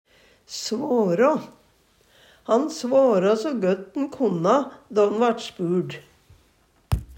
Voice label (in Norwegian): svårå, Numedalsmål; Verb